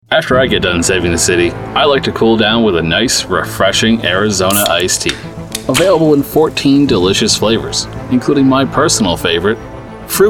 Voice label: Commercial Demo